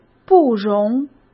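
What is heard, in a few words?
"不容", Mandarin Chinese; chinese flashcards; hsk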